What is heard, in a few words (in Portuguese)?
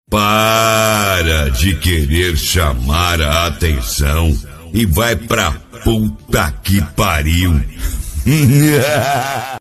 voz impacto; vai pra pqp